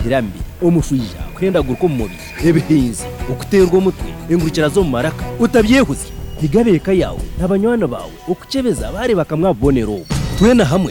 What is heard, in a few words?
MPOX Narrator; radio spots; The MPOX Campaign Safe Circles